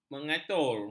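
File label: "mengatur", Malay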